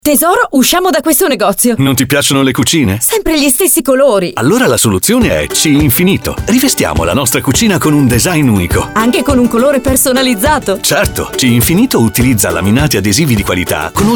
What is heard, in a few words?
spot; c-infinito